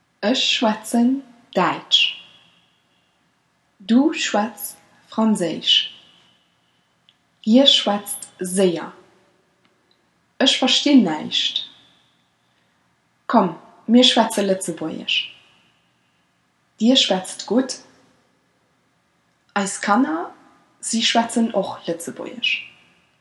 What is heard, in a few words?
verb conjugation schwatzen, Luxembourgish